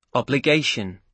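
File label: "obligation", British English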